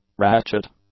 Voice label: "ratchet", English; nouns